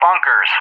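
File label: "bunkers", English; JTAC; Target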